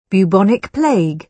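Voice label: "bubonic plague", British English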